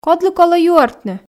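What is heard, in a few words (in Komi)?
"кодлы колӧ юӧртны", Komi, female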